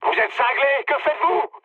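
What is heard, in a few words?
player killed ally, mood medium, French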